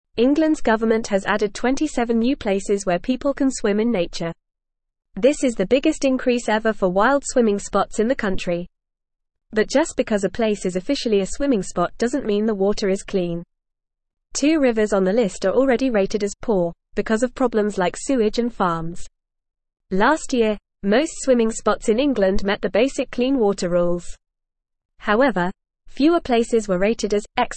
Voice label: English FAST Reading; 27 New Places to Swim in Englands Nature